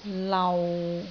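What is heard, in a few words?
"lau6", Cantonese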